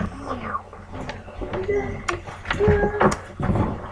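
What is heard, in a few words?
"pick", spoken word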